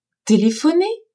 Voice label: "Telephonez !", french; telephoner

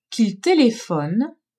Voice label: "qu'il telephone", french; telephoner

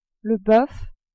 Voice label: "boeuf", french

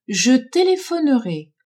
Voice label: "je telephonerai", French

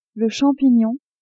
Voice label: "champignon", French; legumes

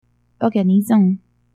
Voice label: "Organisons !", french

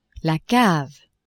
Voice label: "cave", French; maison

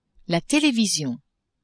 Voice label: "television", French; maison

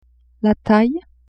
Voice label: "taille", French; vetements

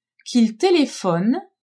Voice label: "qu'ils telephonent", french; telephoner

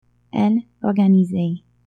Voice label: "elle organisait", french

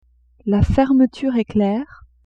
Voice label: "fermeture eclair", French; vetements

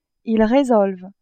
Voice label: "ils résolvent", French

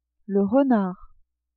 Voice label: "renard", French

